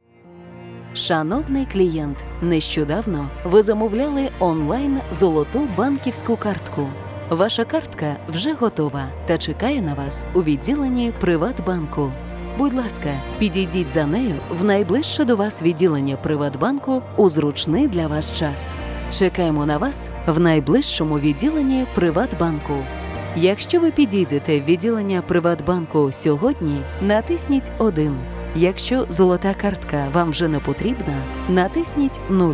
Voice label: ivr